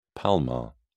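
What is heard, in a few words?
"palmar", British English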